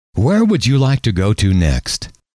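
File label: where would you like to go next, male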